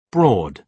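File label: "broad", British English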